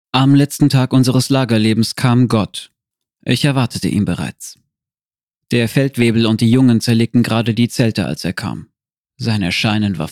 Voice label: German, Male